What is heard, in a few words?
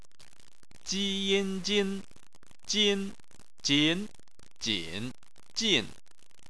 "jin", chinese